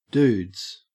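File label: "dudes", Australian English; pronunciation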